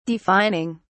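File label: "defining", British English, dictionary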